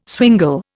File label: "swingle", Indian